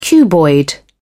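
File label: "cuboid", British English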